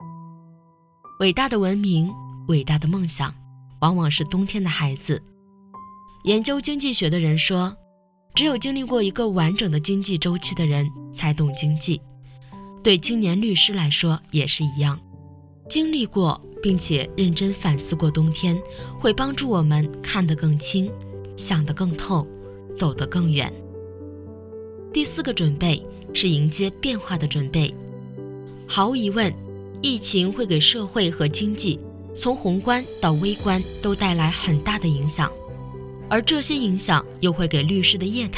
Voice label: 公众号文章讲述感

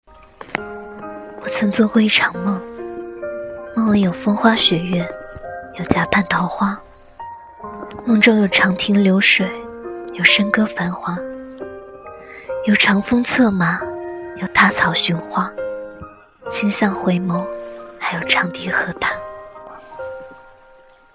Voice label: 古风独白

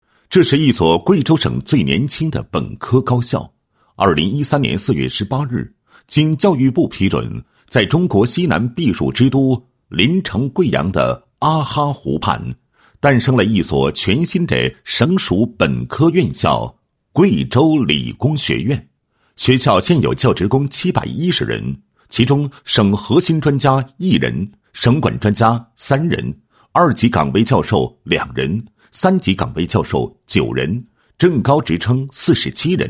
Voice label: 学校理工学院宣传

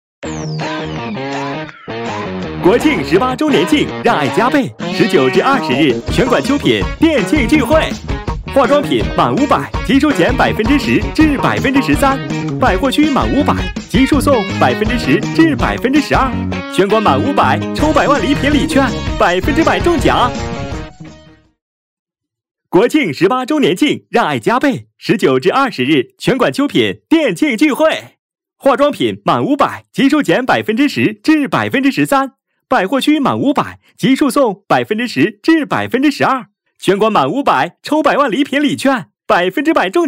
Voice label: 欢快

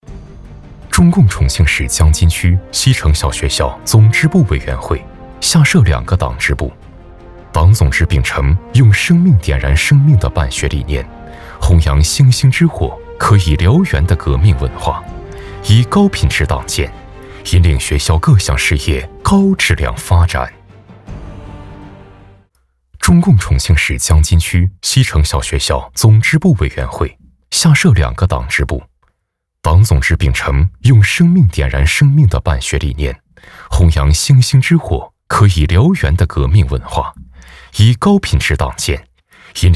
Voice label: 浑厚专题语速快

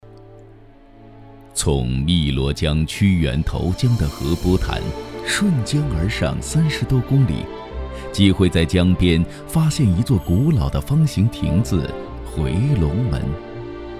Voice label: 纪录片讲述风格; 长乐抬阁故事会

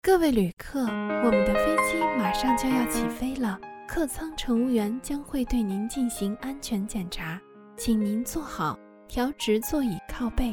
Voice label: 甜美亲切试音

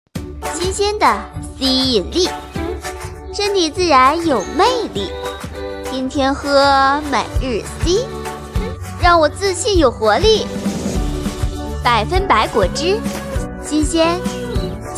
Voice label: 广告

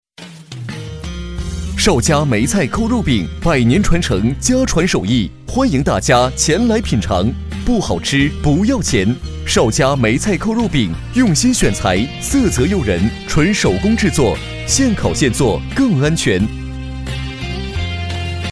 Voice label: 小吃促销

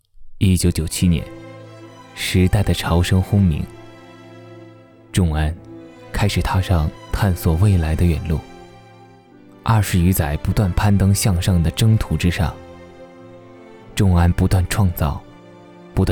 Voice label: 大气宣传片

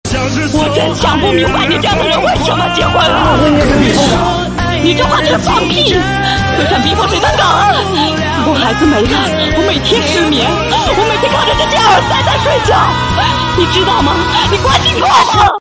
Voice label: 戏感爆发

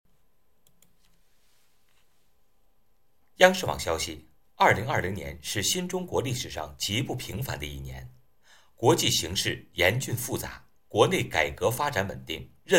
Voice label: 新闻 播报

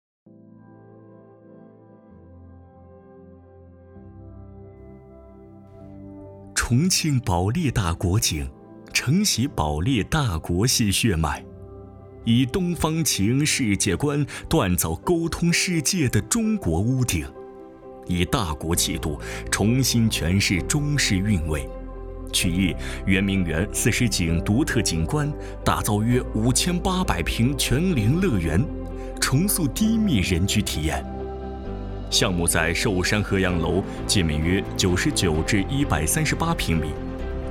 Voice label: 大气激情; 重庆保利大国璟

